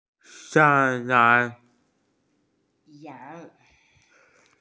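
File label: "goat", Mandarin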